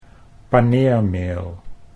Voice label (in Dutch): "paneermeel", Dutch